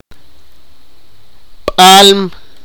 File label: "tiger", Sipakapense